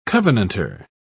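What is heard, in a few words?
"covenanter", British English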